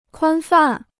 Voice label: "宽泛", Chinese

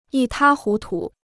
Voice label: "一塌糊涂", Chinese

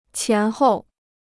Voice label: "前后", Chinese

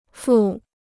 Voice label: "付", Chinese

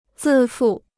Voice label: "自负", Chinese

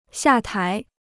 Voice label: "下台", Chinese

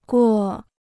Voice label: "过", Chinese

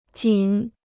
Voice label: "仅", Chinese

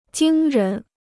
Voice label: "惊人", Chinese

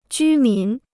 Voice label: "居民", Chinese

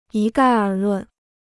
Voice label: "一概而论", Chinese

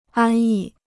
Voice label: "安逸", Chinese

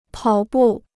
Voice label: "跑步", Chinese

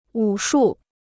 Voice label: "武术", Chinese

